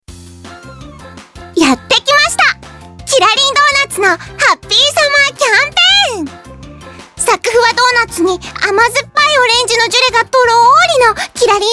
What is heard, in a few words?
narration